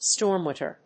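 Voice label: "storm water", English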